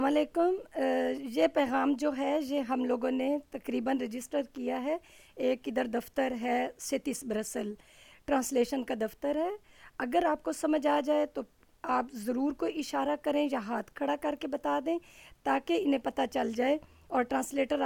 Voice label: Ourdou

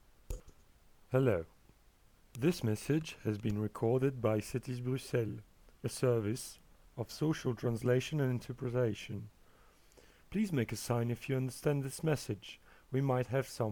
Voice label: Anglais

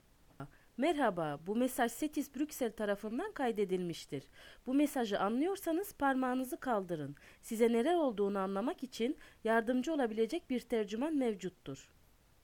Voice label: Turc

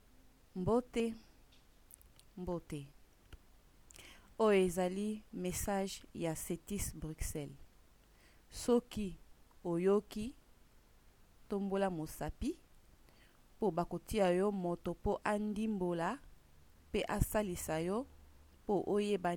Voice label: Lingala

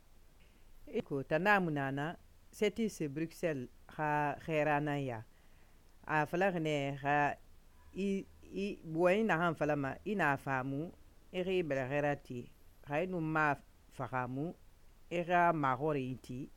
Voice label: Soussou

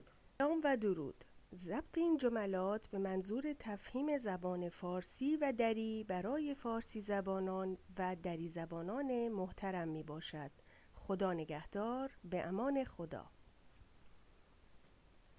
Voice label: Farsi

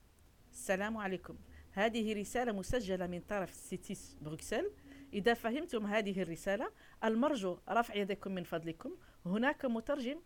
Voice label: Arabe classique

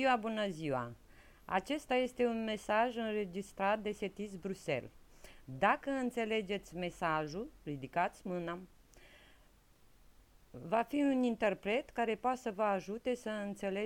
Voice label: Roumain

